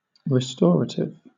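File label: "restorative", British English; pronunciations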